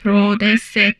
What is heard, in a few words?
humanoid portrait female; Stellaris